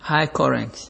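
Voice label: "high current", Nigerian Pidgin, male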